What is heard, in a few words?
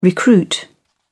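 "recruit", British English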